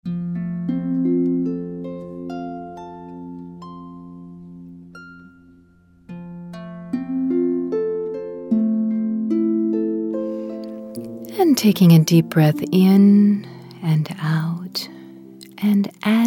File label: Brilliant Brain Meditation, No Music; Secret Keys V7; Quantum Rejuvenation